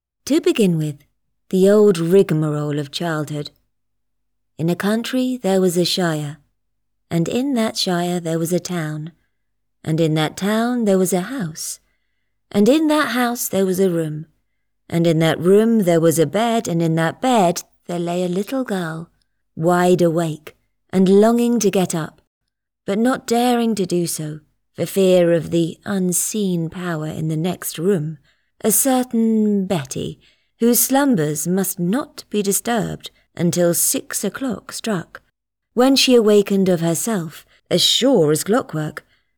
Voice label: Period Drama Audiobook

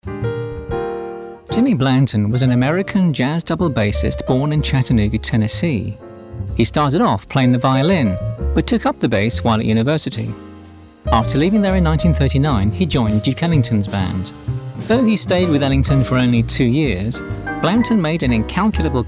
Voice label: narrative reel

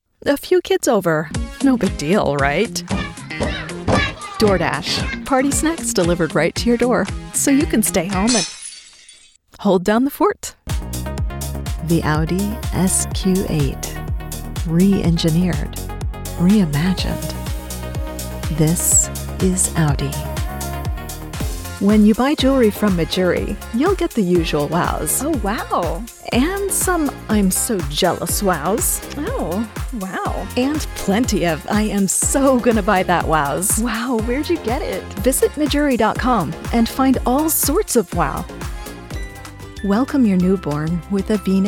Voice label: Commercial

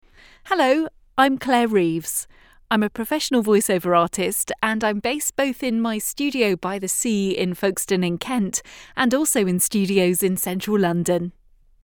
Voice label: Dry Studio

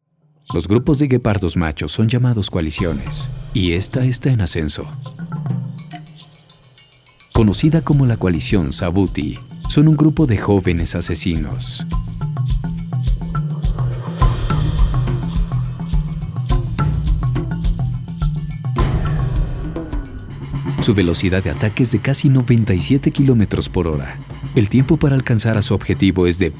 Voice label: Male Spanish Documental

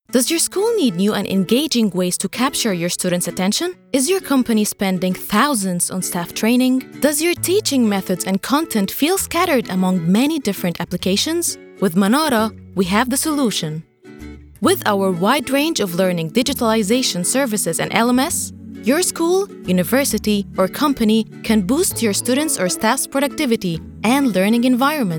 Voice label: American English Sample

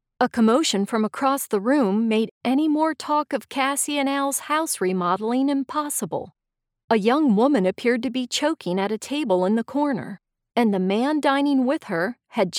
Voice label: audiobook 3rd person cozy mystery sample

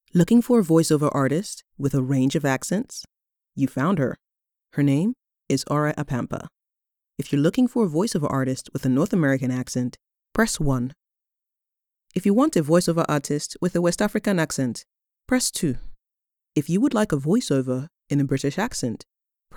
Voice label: Personal Telephone Voiceover

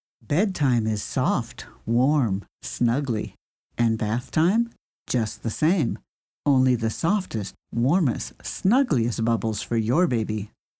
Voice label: SOFT BABY

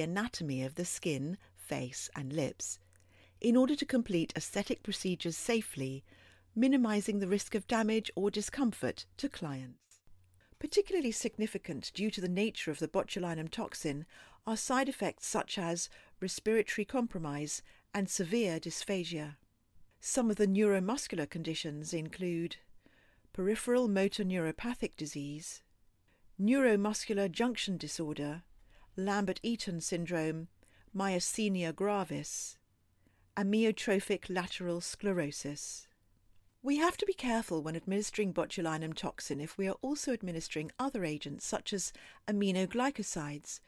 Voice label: e-learning reel cosmetic surgery

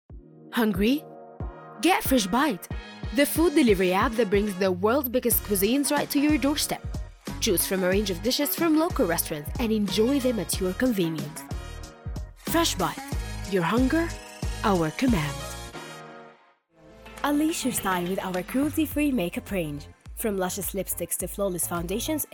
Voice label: English samples

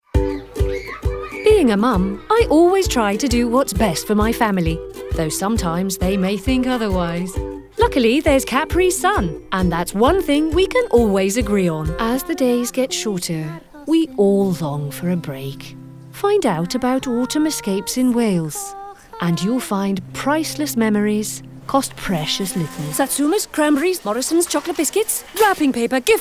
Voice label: Commercial

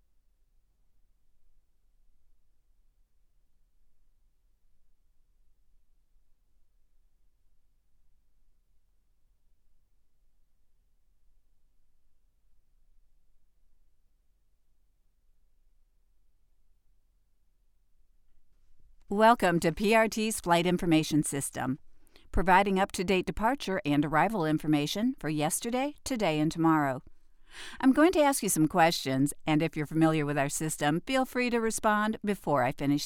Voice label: Home Studio Sound Test

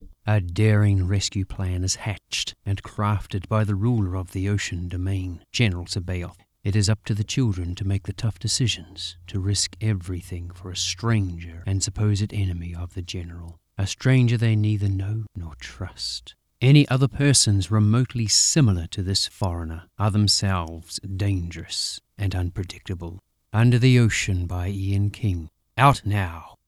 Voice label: Under The Ocean Trailer

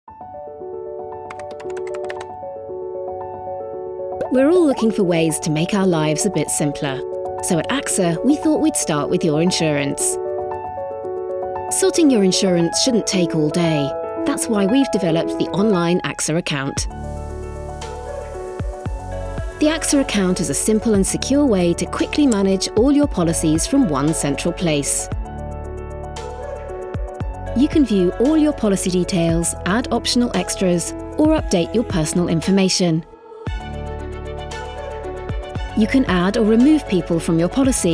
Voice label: Explainer VO; Axa

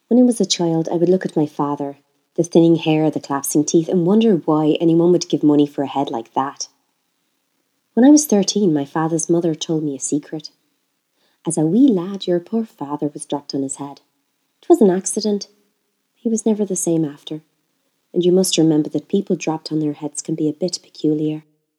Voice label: Irish Accent

